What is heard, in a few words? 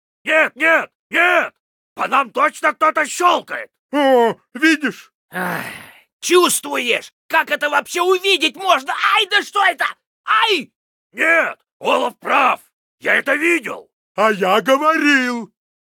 Pissed; Heroes of the Storm; Lost Vikings